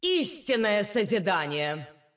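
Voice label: Russian; 秩序之光（守望先锋）; 终极技能; Истинное созидание!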